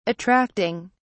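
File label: "attracting", British English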